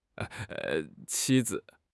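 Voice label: "qi1 zi3", Mandarin Chinese; HSK2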